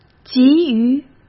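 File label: "急于", Mandarin Chinese; hsk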